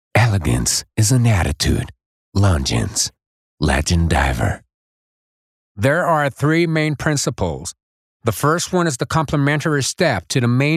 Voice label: voice ENG